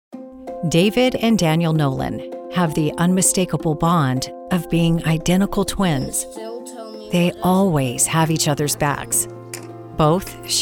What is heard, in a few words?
Docu Narration